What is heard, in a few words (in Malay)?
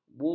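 "bubar", Malay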